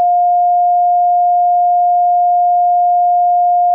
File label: VOICEBAND